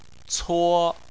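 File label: "cuo" first tone, Chinese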